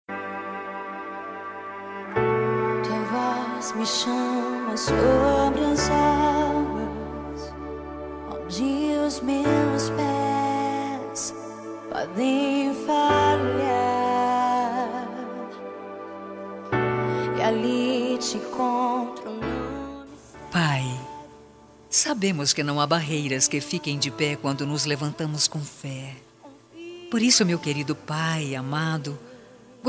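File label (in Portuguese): aniversario pai evangelico feminino, telemensagem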